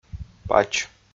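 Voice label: "пач", Komi, male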